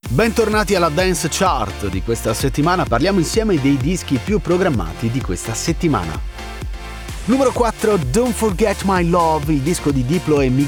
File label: male italian radio dance chart promo